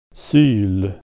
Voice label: "awl", swedish